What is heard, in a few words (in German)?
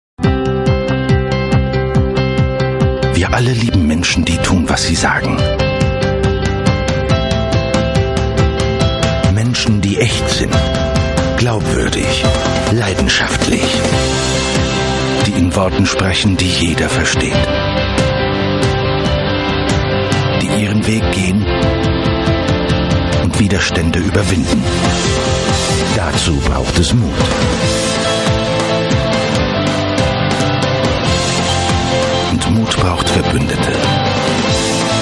image werbung german